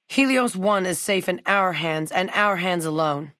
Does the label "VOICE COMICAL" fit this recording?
No